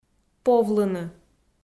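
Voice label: "повлыны", Komi, female; kpv audio dict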